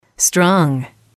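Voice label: "strong", English, female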